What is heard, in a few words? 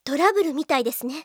syserr, Japan Anime Girls; Windows; Bing; Nanae